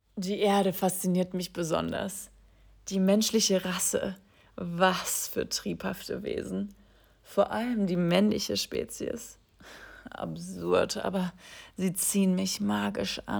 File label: deutsch; Liara